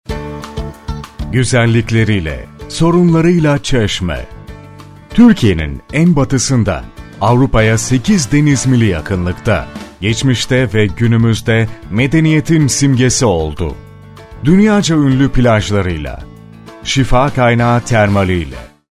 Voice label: locutor turco turkish voiceover

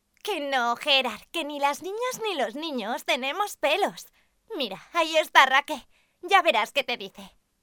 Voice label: locutora nina spanish child voice; voz de niña

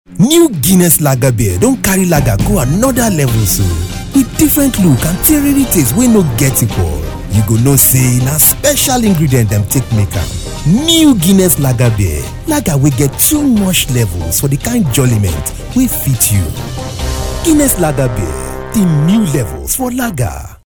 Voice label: pidgin voiceover

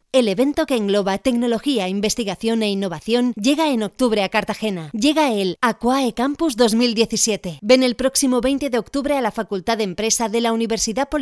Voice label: locutora spanish voiceover

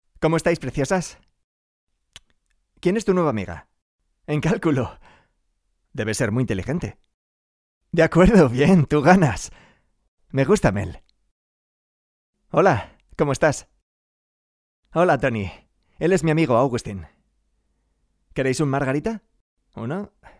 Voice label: locutor doblaje spanish voiceover dubbing; actores actrices doblaje

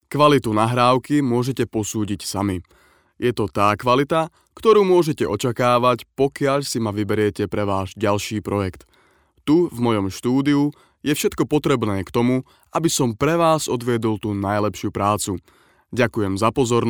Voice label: slovak voiceover locutor eslovaco

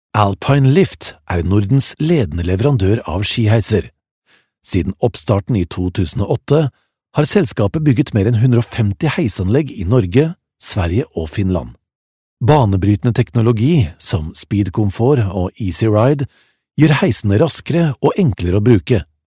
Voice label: locutor noruego norwegian voiceover; locutora noruega, Norwegian voice over

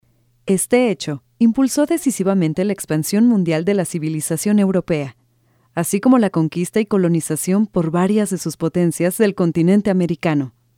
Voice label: locutora mexico mexican voiceover

